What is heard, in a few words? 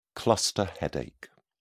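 "cluster headache", English, British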